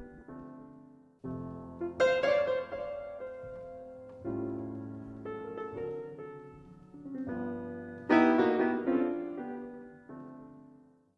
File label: Cool FM Jazz Radio Commercial